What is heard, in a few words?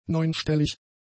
"neunstellig", German; adjectives